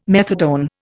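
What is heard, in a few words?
"methadone", English, Indian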